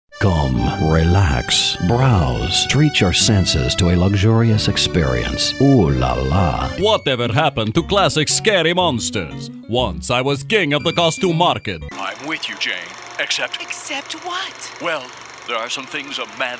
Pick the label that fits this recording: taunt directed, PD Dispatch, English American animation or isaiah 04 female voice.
English American animation